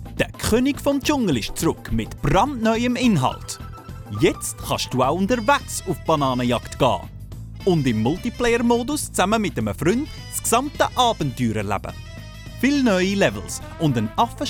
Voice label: Swiss Voice Reel